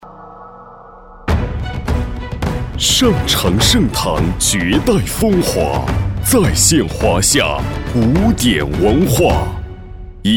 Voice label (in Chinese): boy hotspring, guanggao; Chinese